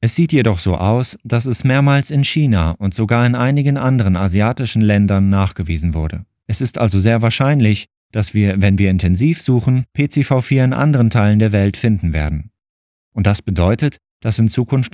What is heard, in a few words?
Male German